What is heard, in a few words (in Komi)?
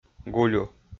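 "гулю", Komi, male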